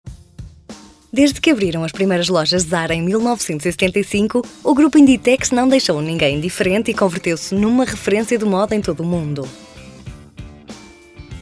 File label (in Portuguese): portuguese corporate videos